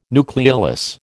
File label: "nucleolus", American